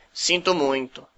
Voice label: sorry, portuguese br